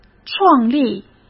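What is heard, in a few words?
"创立", Mandarin Chinese; hsk; chinese flashcards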